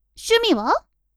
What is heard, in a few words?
women